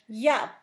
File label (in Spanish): "chayote", Zapoteco; San Pedro Mártir; INALI